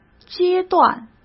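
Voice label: "阶段", Mandarin Chinese; chinese flashcards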